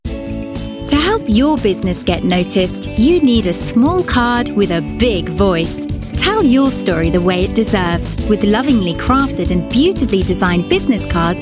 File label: commercial reel